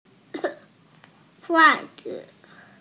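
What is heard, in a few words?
"socks", Mandarin Chinese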